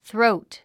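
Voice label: "throat", English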